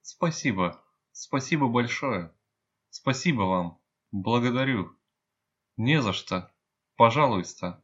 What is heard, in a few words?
thanks, russian